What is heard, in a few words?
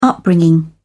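"upbringing", British English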